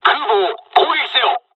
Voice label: dialogs japanese; War Thunder

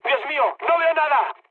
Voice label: searchlite, mood low, speech; War Thunder

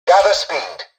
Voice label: tutorial dialogue, english; War Thunder

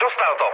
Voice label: aircraft destroyed, speech cze, mood low; War Thunder

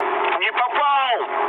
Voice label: bomb failure, mood low, speech ru; War Thunder

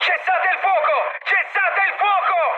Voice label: player friendly fire mood high, speech it

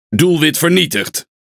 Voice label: NL Speech Pilots Bomber Tango down; World Of Warships

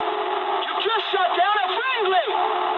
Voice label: eng player killed ally mood high, speech eng; War Thunder

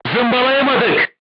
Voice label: voice message armor not pierce, turkish

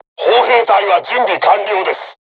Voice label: voice message art charged, crew tanks japanese

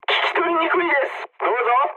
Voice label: jp chat, speech jp

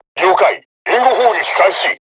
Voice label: voice message art shoot, crew tanks japanese

